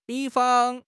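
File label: "比方", Mandarin Chinese; HSK; HSK6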